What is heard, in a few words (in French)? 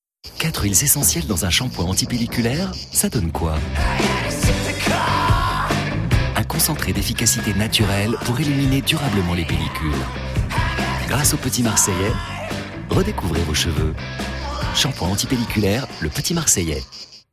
droit souriant; voix off; Le Petit Marseillais